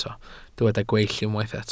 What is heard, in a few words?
"gweill", Welsh